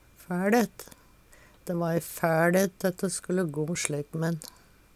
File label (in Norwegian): "fæLhet", Numedalsmål